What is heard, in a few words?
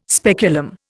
"speculum", Indian